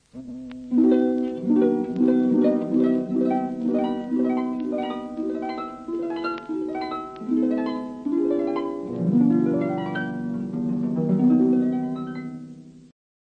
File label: words of life hakka, drama; hakka taiwan hakka version nt drama